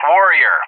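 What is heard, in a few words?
"Warrior", English; JTAC; Callsign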